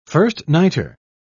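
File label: "first-nighter", British English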